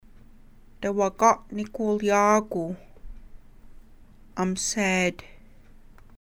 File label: I'm sad